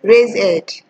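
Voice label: "raise head", Nigerian Pidgin, female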